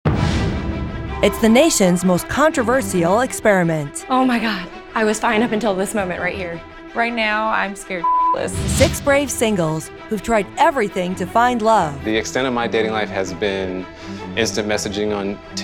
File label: women promos; Cool, smoky, raspy, sexy, trustworthy, & approachable.